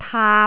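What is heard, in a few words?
"taap3", Cantonese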